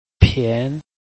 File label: "pian2", Chinese